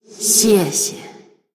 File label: Female BloodElf Thanks; ▶谢谢。 ▶Thank you.; 虚空之影瓦莉拉; 感谢